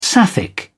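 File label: "sapphic", British English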